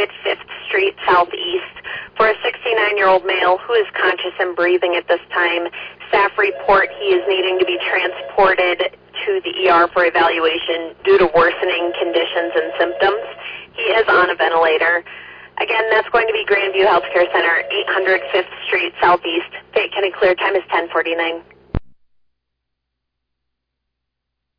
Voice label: Oelwein Ambulance, dispatch